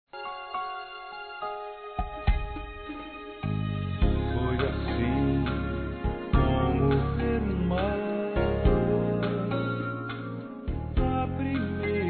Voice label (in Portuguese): Aniversário Tio Masculino; Aniversários; Aniv Familiar